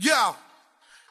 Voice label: "yo", Voice; ooh ahh